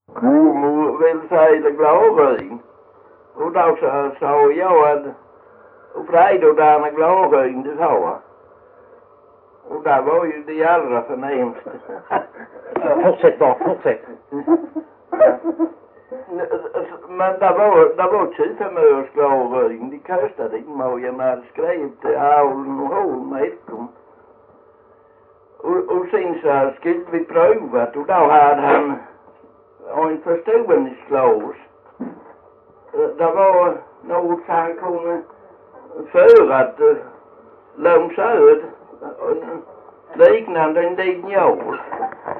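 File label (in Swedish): Jämshög Blekinge, dialekter; Folkminnen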